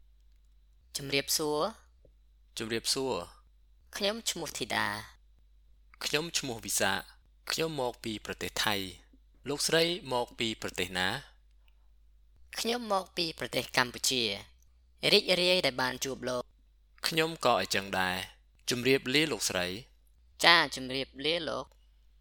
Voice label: Formal conversation

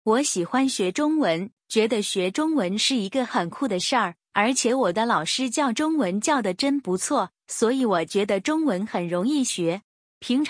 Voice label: narration audio

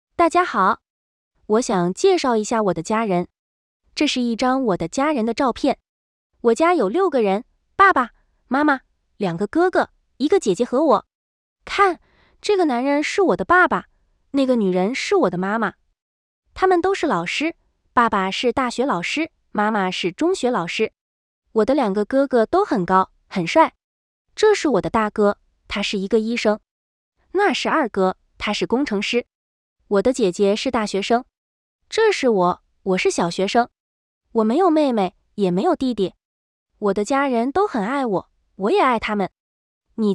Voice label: narration audio; Lesson 2 Dialogue 2: Talking About Family Members

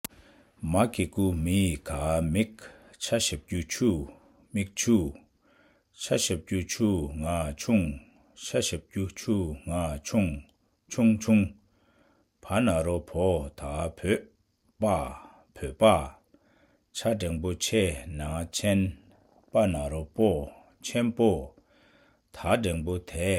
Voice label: Suffix Letters with Vowels, Tibetan

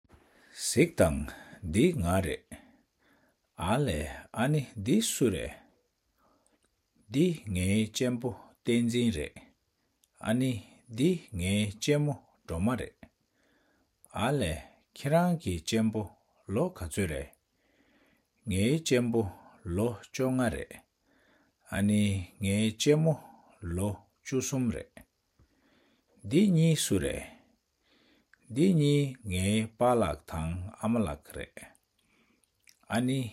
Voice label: Dialogue, Tibetan; Chapter 8 Dialogue 2

